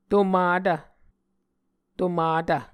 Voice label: "tomatv", Muscogee